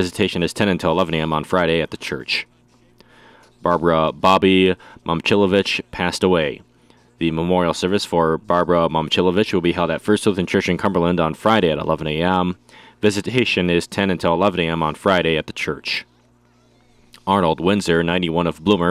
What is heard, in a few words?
Funeral Announcements